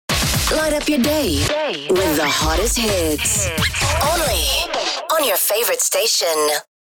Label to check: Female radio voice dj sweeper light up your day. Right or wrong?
Right